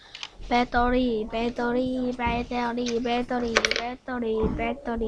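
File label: "battery", spoken word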